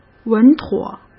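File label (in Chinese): "稳妥", Mandarin Chinese; hsk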